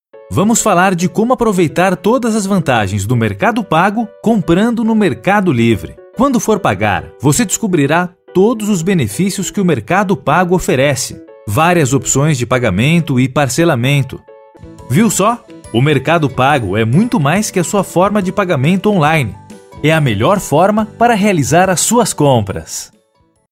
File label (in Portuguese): Portuguese Brazil